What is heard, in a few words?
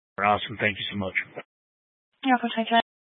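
Sheriff Dispatch, scanner audio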